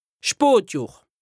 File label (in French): "'s Spotjohr", lexique indispensable haut rhin, Alsace; automne